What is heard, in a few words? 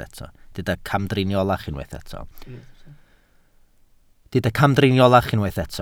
"camdriniolach", Welsh